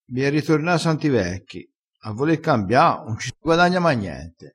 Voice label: "ritorna a santi", crespinese, vocabolario dialettale

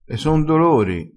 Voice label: "e son dolori", vocabolario dialettale

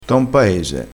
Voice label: "paese", crespinese

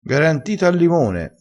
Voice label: "garantito", dialettale crespinese

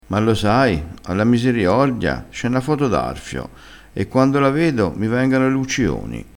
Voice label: "luccioni", crespinese, vocabolario dialettale

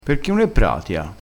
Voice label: "granata", crespinese

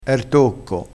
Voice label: "r", vocabolario dialettale

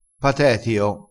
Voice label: "patetio", crespinese

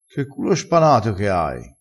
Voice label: "ave r culo spanato", crespinese

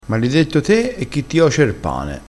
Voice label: "malidetto", crespinese, vocabolario dialettale

